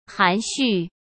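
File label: "含蓄", Mandarin Chinese; hsk; chinese-flashcards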